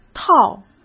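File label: "套", Mandarin Chinese; chinese flashcards; hsk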